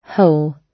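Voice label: "hole", British English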